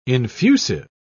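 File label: "infusive", British English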